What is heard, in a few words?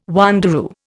"wanderoo", Indian